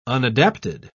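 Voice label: "unadapted", British English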